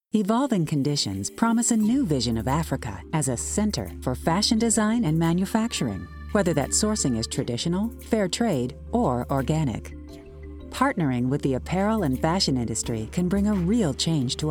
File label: narration